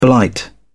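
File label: "blight", British English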